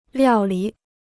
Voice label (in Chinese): "料理", Chinese